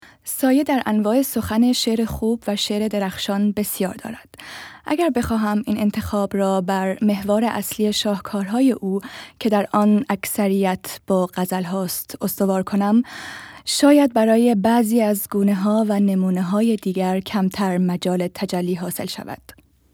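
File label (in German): Iran, weiblich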